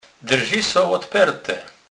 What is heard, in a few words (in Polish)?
"lodperte", kurpiow